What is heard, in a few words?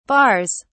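"barres", British English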